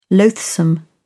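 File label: "loathsome", English, British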